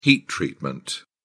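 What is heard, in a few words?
"heat treatment", British English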